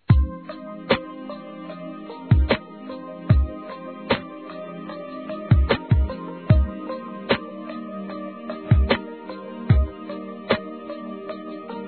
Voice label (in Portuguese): Estou Gostando de Você Masculino, Telemensagens; Desculpas; Telemensagens 2017